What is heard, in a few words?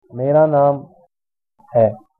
my name is, hindi